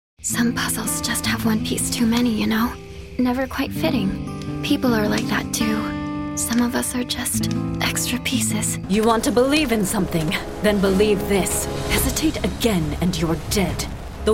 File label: animation women